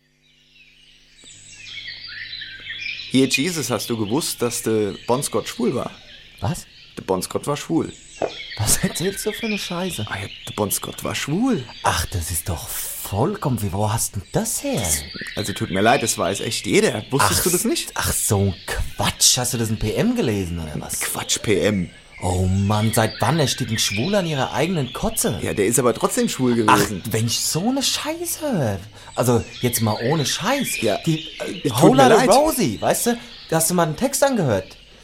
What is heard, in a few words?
german narration parody